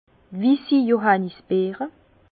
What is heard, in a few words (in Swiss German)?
"wissi", Bas Rhin; vin; groseilles blanches